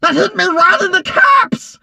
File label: hurt vo; 纸片人科迪琉斯; 卡通工作室; toon cordelius